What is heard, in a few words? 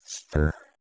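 "sth2ur", Proto-Indo-European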